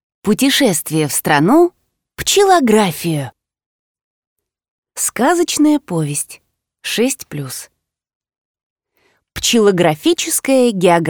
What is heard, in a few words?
audiobook; Pchelografiya; Чтец, диктор